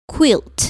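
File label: "quilt", English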